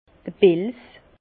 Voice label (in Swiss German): "pelz", Bas Rhin; vin; fourrures